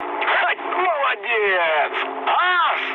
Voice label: trick, speech ru

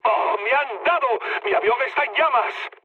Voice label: on fire, mood high, speech; War Thunder

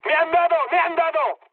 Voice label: aircraft destroyed mood high; War Thunder

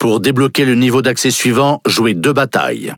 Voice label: Speech Access Level Unlock, FR; World Of Warships

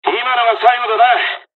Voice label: dialog, dialogs japanese; War Thunder

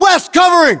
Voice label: US response covering west, battlechatter, english

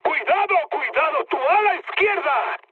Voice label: left wing, mood high, speech; War Thunder

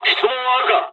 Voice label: dialogs japanese; War Thunder

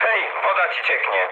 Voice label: damaged water mood low, speech pol; War Thunder

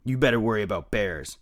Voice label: mutter; Escape from Tarkov

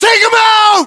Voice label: order attack infantry, US

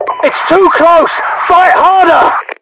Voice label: tied, UK; english; voiceovers; mpvoice

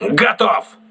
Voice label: voice message enemy destroyed, crew ships russian

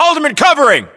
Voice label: US response covering, battlechatter, english; localized english

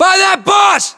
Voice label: landmark near bus, US; localized english; battlechatter

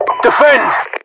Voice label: defend, localized english, UK voiceovers; mpvoice

